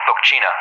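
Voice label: "Lochini", English; Callsign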